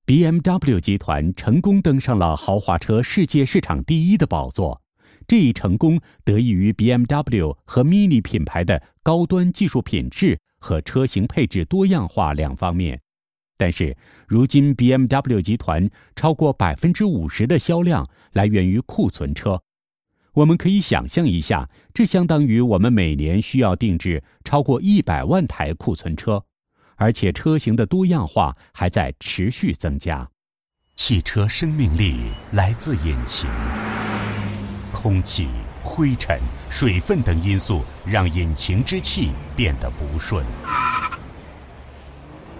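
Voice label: male, Mandarin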